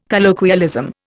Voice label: "colloquialism", Indian